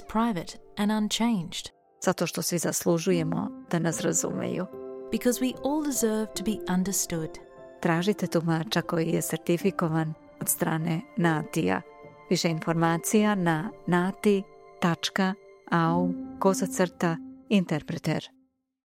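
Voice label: Serbian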